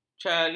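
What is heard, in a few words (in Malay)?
"ceri", Malay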